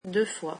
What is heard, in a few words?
"twice", French